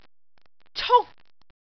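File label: "cuk1", Cantonese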